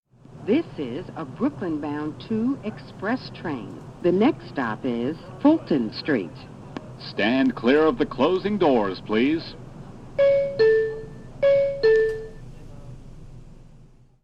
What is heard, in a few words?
subway nyc announcement